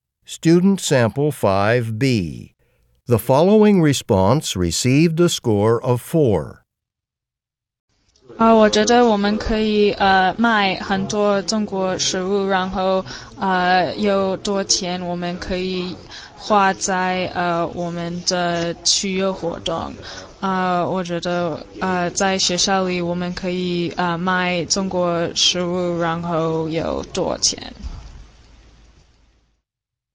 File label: chinese conversation